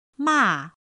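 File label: "mà", Chinese; pinyin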